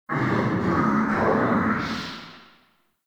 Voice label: Barks Unholy Slam Verb; Warframe; Tau; Roathe

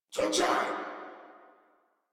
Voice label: surprised crewmen; Warframe; Corpus Crewmen; Crewman B

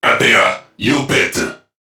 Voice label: Patrol Crewmen, Dialog, Barks; Warframe; CorpusCrewmen; CrewmanA

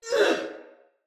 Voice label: Attack, Male, en; Battle; Barks; Dialog; Sinecure Banneret

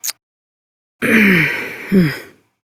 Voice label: Horse Mount Adult, Dialog; Duviri

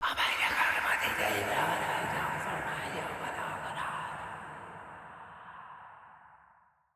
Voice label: Bb Whis Circade en, Dialog; Encounters

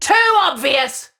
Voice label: Player Spotted Ranged, Battle Barks; Tau; Tau Dax

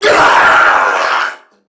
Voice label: Death Crewmen, en

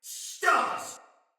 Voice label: Pain, Soldier Male; Sinecure Soldier Male A

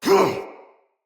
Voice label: Attack, Battle, Soldier Male; Barks; Dialog; Dendrit

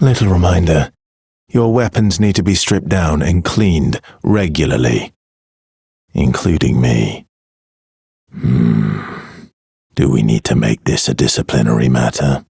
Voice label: Ambient Barks, en; Warframe; Mall Romance; Arthur

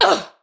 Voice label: Pain Ranged, Battle Barks; Tau; Tau Dax

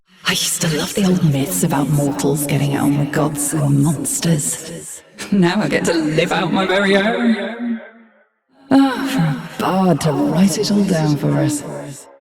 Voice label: Ambient Barks, en; Warframe; Mall Romance; Eleanor

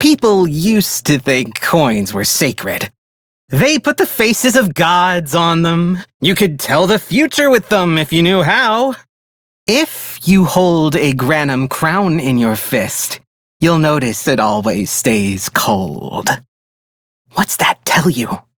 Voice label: Idle, Male; Dialog; Warframe; Railjack Crew; Perrin Sequence

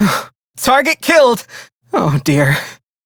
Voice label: Crew Enemy Defeat, Male; Dialog; Warframe; Railjack Crew; Perrin Sequence

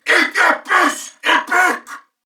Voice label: Reload Male, en; Dialog; Barks; Battle; Corpus Venus; Warframe; CorpusVenusMaleB

